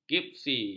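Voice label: "gipsi", Malay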